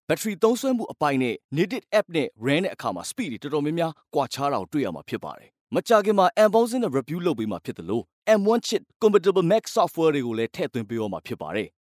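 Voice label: Burmese, Myanmar, male, voice over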